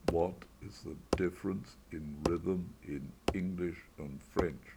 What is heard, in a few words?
rhythm slow